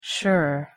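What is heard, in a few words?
"sure", English pronunciation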